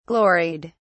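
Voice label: "gloried", English, British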